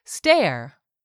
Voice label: "STARE", english; 3000 words

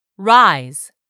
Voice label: "rise", English; 3000 words

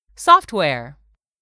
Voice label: "SOFTWARE", english; 3000 words

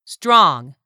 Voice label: "strong", English; 3000 words

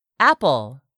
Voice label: "apple", english; 3000 words

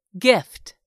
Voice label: "gift", English; 3000 words

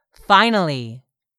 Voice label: "FINALLY", english; 3000 words

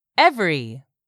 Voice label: "EVERY", english; 3000 words

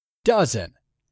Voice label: "dozen", English; 3000 words

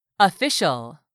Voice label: "official", English; 3000 words

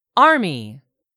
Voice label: "ARMY", english; 3000 words

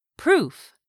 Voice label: "proof", English; 3000 words

